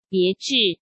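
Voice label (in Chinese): "别致", Mandarin Chinese; hsk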